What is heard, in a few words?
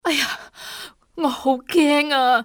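"scared", female; XBOX; civ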